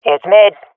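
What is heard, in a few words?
swat female; Counter Strike; CS:GO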